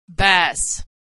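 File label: bass, American Pronunciation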